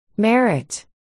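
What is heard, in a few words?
"merit", spoken word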